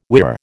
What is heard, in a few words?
"whirr", American